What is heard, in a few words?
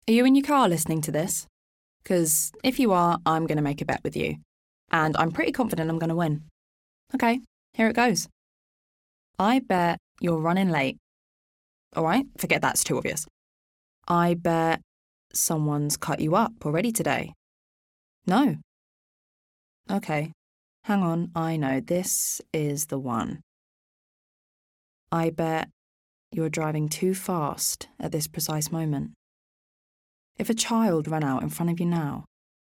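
English UK, Commercial; GoLocalise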